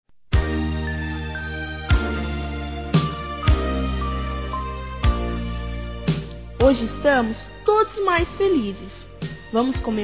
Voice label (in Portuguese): AVÓ INFANTIL, Aniversários; Aniv Familiar